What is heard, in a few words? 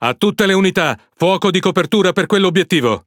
It Speech Quick Commands Ally Ship; World Of Warships